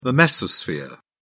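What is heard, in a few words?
"mesosphere", British English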